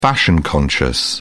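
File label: "fashion conscious", British English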